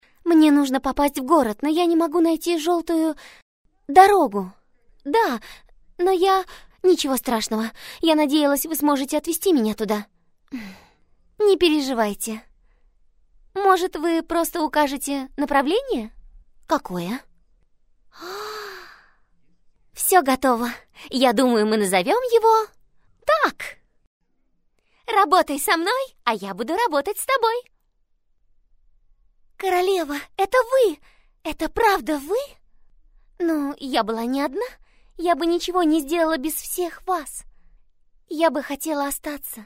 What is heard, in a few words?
russian f character; voice demos